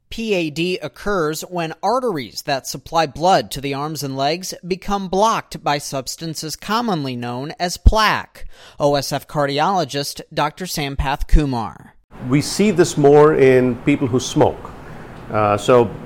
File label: radio package